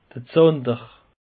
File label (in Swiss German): "rajemolle", alsace; peche